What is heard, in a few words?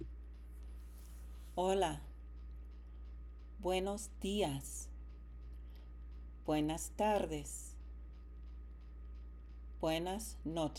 Speaking Practice; m1 lesson 3